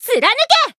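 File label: Voice finish, Japanese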